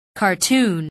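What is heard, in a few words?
"cartoon", English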